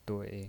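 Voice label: "dui" Mandarin Chinese, third tone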